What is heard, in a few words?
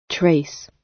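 "trace", British; Flora and fauna, agriculture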